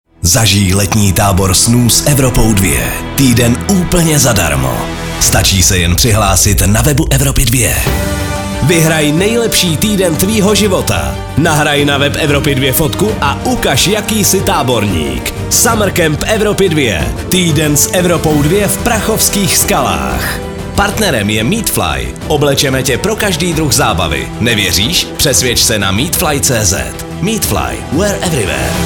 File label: promo